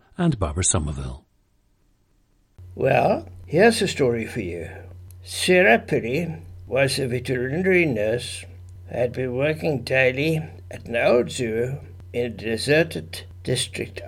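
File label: south africa, dialects of English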